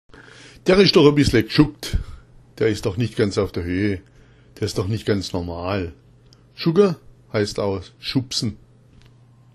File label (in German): schugga, schwaebisch